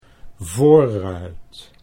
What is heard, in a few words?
"voorruit", Dutch